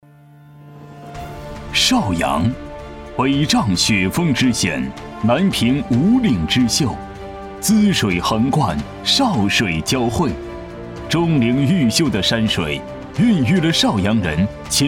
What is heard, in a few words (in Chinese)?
男国 宣传片 城市 浑厚; 邵阳城市宣传